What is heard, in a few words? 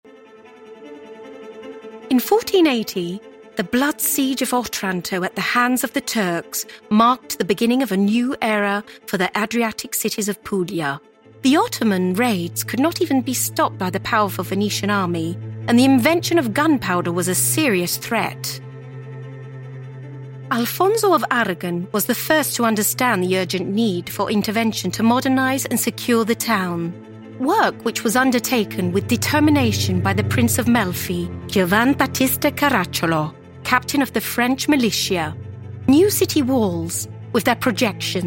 eng audio porta nuova via fossato; borgo antico